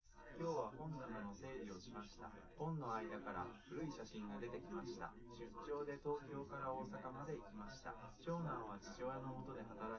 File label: male live rec